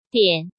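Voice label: "Diǎn", Chinese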